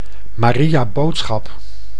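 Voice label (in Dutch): "Maria boodschap", Dutch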